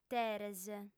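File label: "t'eres", lingua sarda, female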